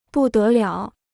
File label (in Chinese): "不得了", Chinese